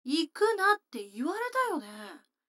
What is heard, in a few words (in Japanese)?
女の子台詞